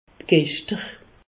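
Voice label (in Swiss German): "gechter", Alsatian; medecine; convulsions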